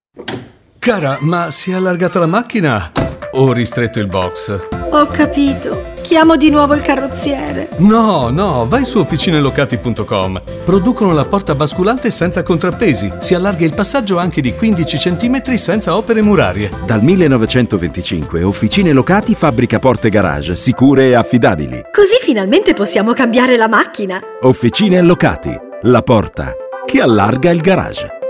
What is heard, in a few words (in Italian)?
spot radio; OFFICINE LOCATI